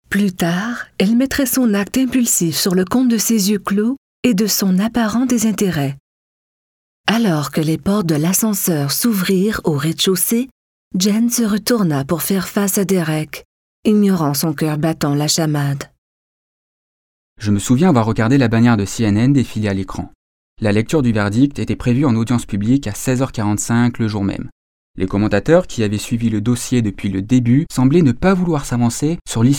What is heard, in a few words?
FR EN Adult Audiobook